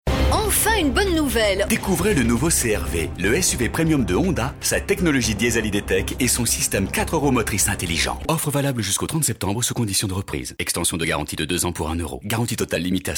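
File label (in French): PUB Honda, voix; Promo punchy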